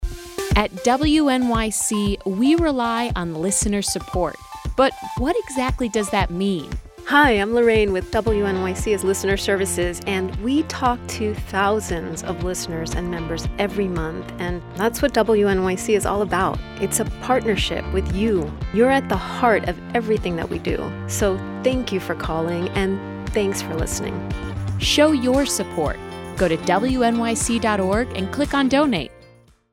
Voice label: radio promo; wnyc